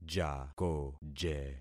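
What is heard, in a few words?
"jakoje", concatenated syllables; Affixing experiment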